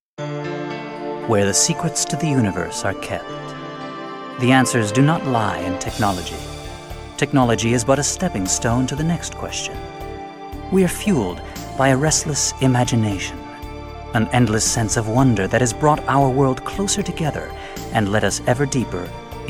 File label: usa man